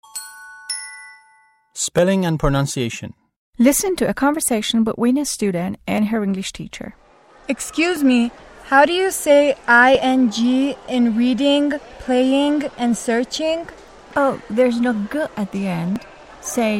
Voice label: english spelling and pronunciation